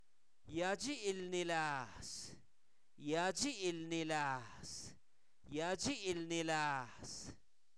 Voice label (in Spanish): cambio (monedas sueltas), Zapoteco, santo domingo albarradas; objetos inanimados